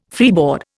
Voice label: "freeboard", Indian